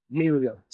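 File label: Voice Acting es M, Spanish, male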